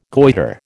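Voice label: "goitre", American English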